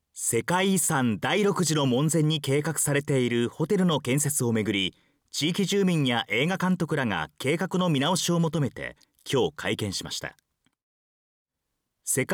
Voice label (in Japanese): ボイスサンプル ニュース